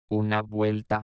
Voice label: "una vuelta", Spagnolo; Fonetica